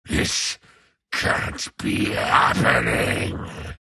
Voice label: troll death; troll warlord